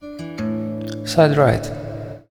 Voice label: audio channel side right